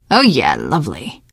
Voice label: hurrah, teen girl, survivor voice

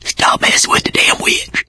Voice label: witch getting angry, survivor voice mechanic; source engine; left 4 dead 2

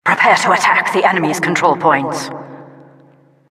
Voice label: announcer attack control points

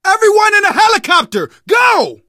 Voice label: arrive chopper, survivor voice, manager

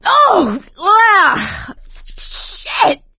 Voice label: boomer reaction, survivor voice; source engine; left 4 dead 2; producer

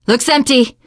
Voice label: area clear, teen girl; survivor voice

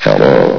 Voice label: "hello", vox; Source Engine; bshift

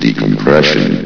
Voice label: "decompression", vox; Source Engine; bshift

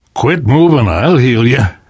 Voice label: heal other, left4dead2 survivor voice namvet

